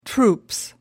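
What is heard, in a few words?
"troops", British English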